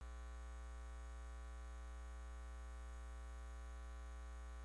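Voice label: "lute", spoken word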